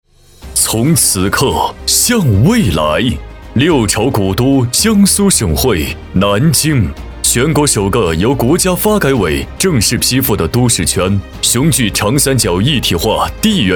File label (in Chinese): 男 城市专题 大气浑厚; 云溪医谷